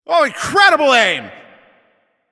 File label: Announcer Especially Good Shot